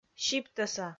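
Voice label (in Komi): "сиптӧса", Komi, female; kpv audio dict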